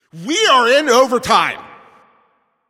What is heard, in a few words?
Announcer Overtime Starts